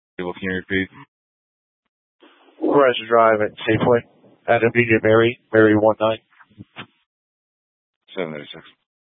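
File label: Police Dispatch, Scanner audio recording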